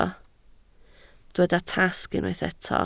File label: "tasg", Welsh